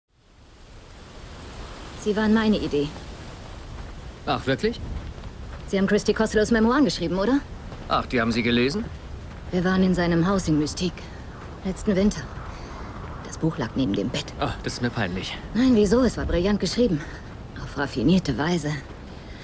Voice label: synchron abgeklärt energisch; ghostwriter

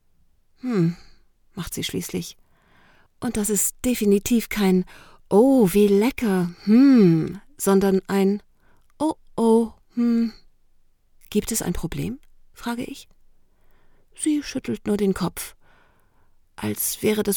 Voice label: Hoerbuch

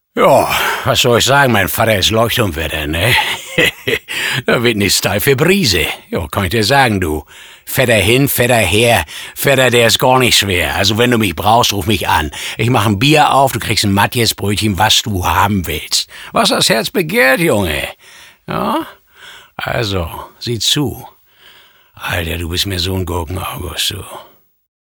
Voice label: Leuchtturm Waerter, Sprecher; LOFT VOICES

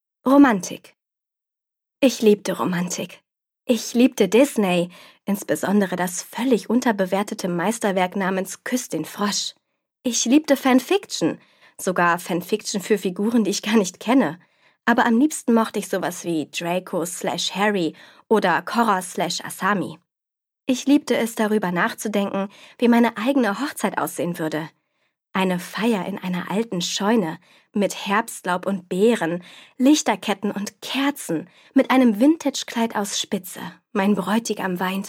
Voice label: Hoerbuch Ausschnitt; Alice Oseman; Loveless

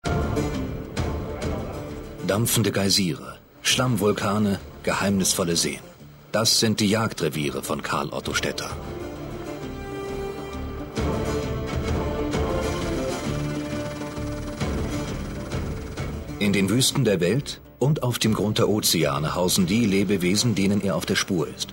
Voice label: kommentar

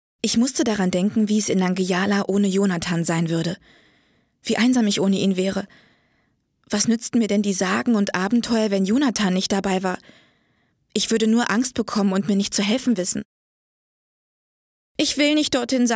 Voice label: maerchen, Kindergeschichte, Audiobook (Hörbuch)

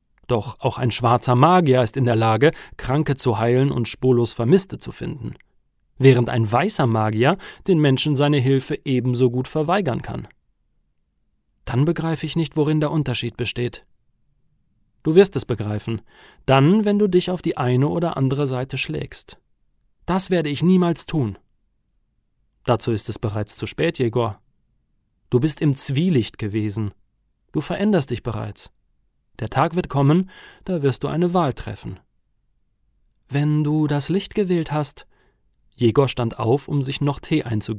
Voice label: Hoerbuch Dialog; Waechter der Nacht; Lukjanenko

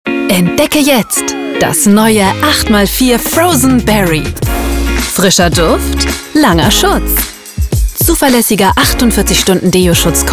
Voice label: Werbung Deo; LOFT VOICES

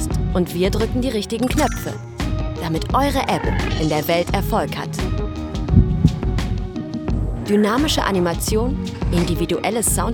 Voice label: werbung erklär; click clever

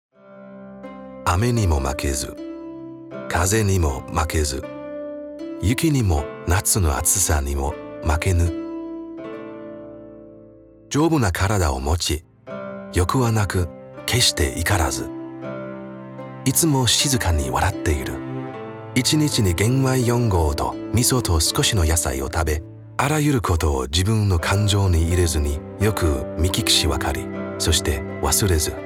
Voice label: japanisch gedicht; LOFT VOICES

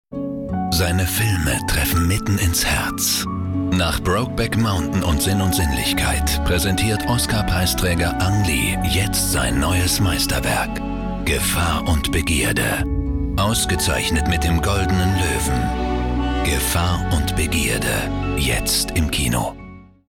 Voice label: off trailer

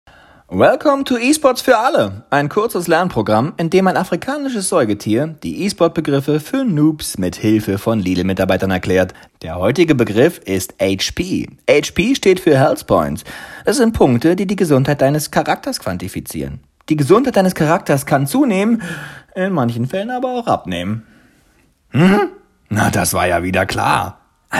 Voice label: Werbung Moderation Comic

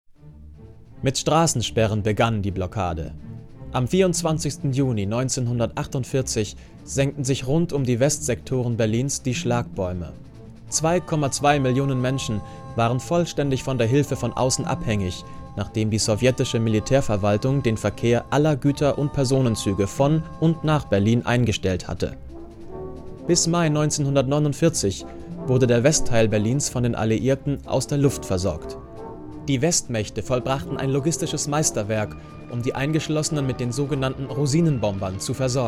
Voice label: off historische doku

